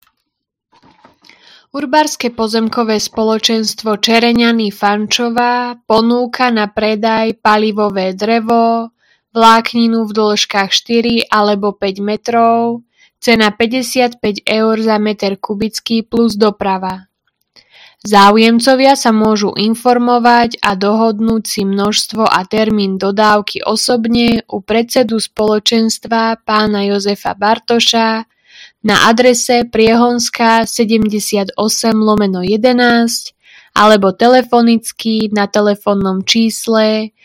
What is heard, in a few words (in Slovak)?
Hlásenie obecného rozhlasu; urbar; UPS Čereňany - Fančová - predaj palivového dreva